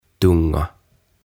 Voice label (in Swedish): "Tunga" "Kieli"